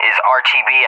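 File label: "is RTB", English; DCS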